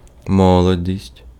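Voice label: Ukrainian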